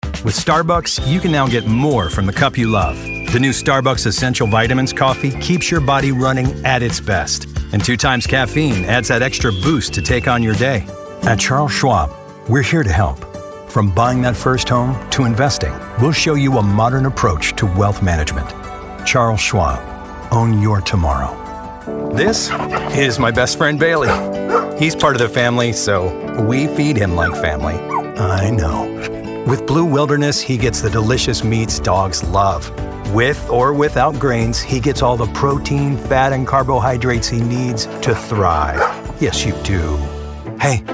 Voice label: Commercial Voiceover; voice actor and branding specialist